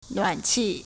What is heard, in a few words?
"nuan3qi4", Chinese